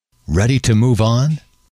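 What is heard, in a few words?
"ready to move on", male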